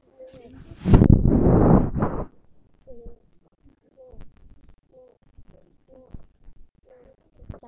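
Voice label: "neck", spoken word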